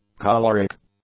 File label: "choleric", English; adjectives